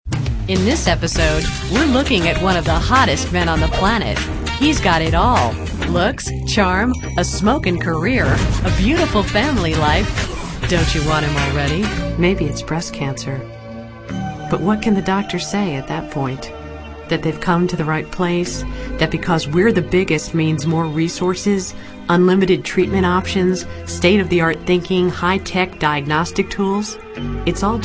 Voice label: female narration